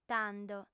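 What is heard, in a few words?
"tando", female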